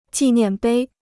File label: "纪念碑", Chinese